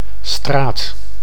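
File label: "straat", Dutch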